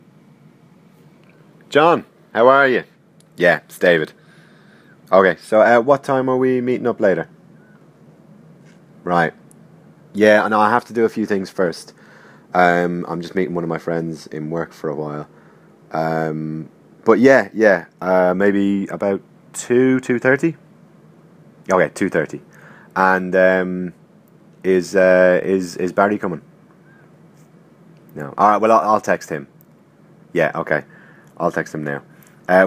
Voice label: one sided phone conversation